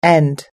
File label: "end", us english